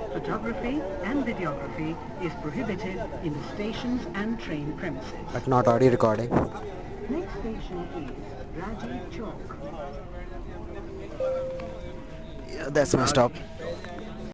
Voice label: delhi metro announcements

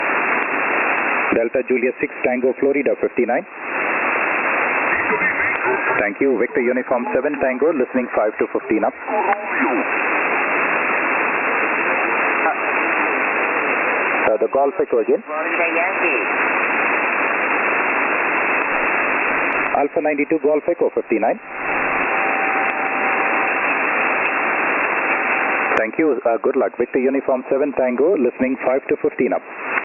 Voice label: lakshadweep island on 17m ssb

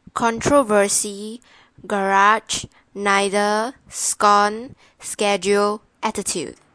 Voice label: singapore female

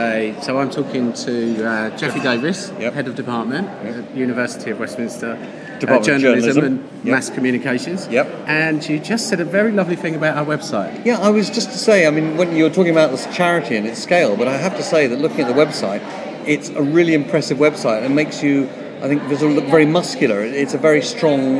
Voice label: soundbyte conversation

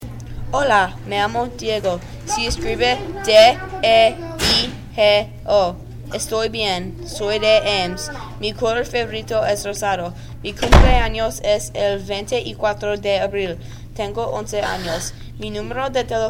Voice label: spanish test

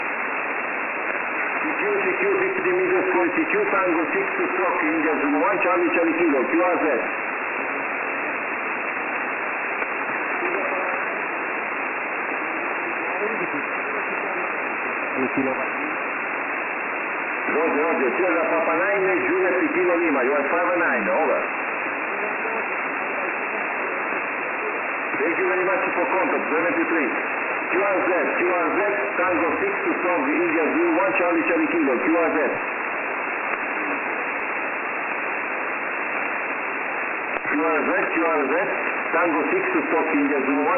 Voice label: afghanistan on 15m ssb

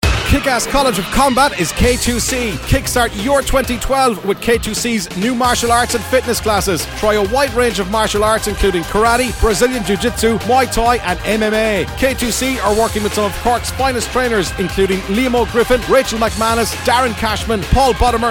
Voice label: advert; k2c; redfm

